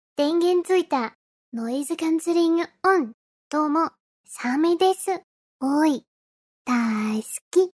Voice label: JPN; aviot